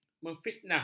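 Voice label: "memfitnah", Malay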